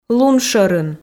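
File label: "луншӧрын", Komi, female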